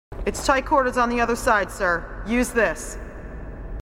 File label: marine sassy